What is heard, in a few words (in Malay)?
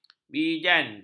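"bijan", Malay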